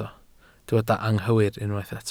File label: "anghywir", Welsh